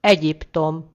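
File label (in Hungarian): "egypt", Hungarian; gcompris; geography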